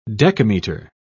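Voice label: "decametre", English, British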